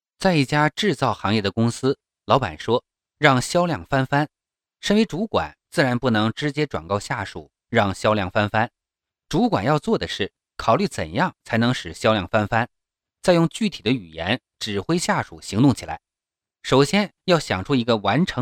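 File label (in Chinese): 课程解说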